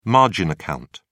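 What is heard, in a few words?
"margin account", English, British English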